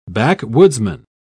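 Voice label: "backwoodsman", British English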